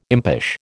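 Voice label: "impish", American English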